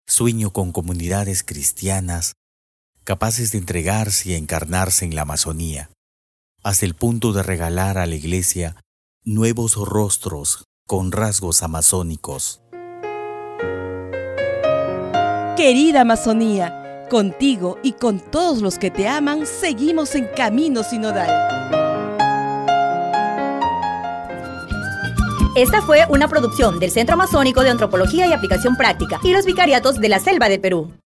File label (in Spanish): SPOT